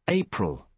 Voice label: april, UK